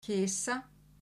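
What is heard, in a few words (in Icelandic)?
"Kisa", Icelandic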